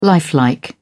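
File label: "lifelike", British English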